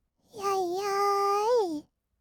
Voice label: "やいやーい", kawaii voice; 1200 kawaii voice!!; 色々なワンショット

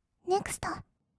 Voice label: ネクスト, kawaii voice; 音ゲー

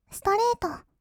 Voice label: "ストレート", kawaii voice; ASMR; 色々なワンショット

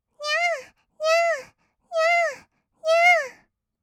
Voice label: にゃあにゃあ, kawaii voice; 合いの手; 1200 kawaii voice!!; ASMR

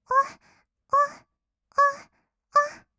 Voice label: おっおっ, kawaii voice; ASMR; 合いの手; 1200 kawaii voice!!

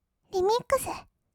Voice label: "リミックス", kawaii voice; ASMR; 1200 kawaii voice!!; 音ゲー

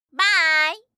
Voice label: バァイ, ASMR

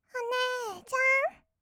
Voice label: お姉ちゃん, kawaii voice; ASMR; 二人称

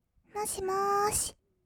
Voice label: もしもーし, kawaii voice; ASMR; 1200 kawaii voice!!; 音ゲー

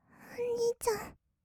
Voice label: "おにいちゃん", kawaii voice; ASMR; 二人称; 1200 kawaii voice!!

